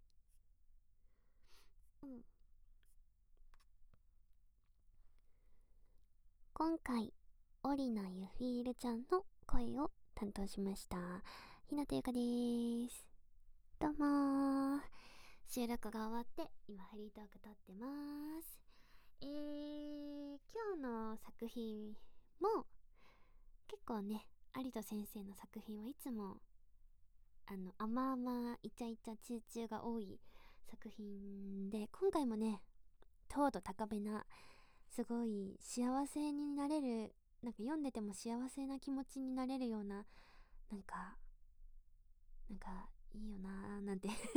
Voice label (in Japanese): フリートーク; 委員長サキュバス